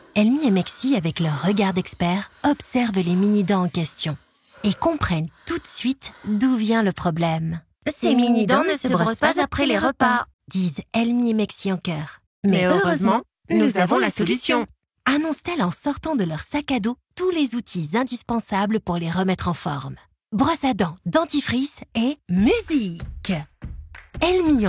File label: french animation, french voice actors; French voice over actress native from France, neutral accent